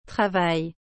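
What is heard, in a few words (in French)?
"travail", French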